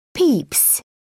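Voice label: "peeps", English, British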